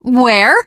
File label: teengirl, voice; Left 4 Dead 2; l4d1 finale